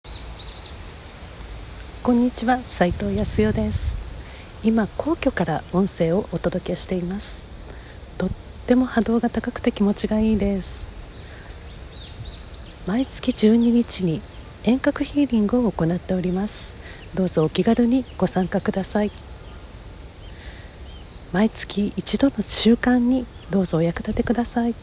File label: healing message